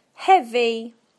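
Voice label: "heavy", British English; PictureDictionary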